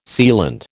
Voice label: "sealant", American

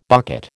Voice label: "bucket", American

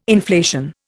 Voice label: "inflation", Indian

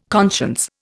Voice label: "conscience", Indian

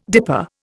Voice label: "dipper", Indian

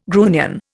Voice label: "grunion", Indian

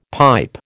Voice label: "pipe", American

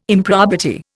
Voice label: "improbity", Indian

